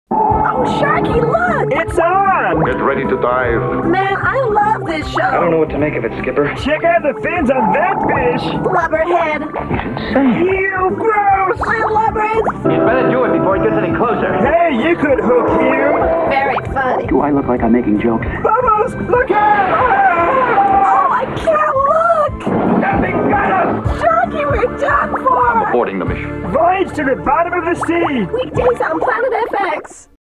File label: Voice Over; Foxtel